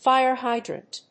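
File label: "fire hydrant", English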